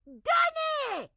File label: grandma chopper cmon; Blind Drive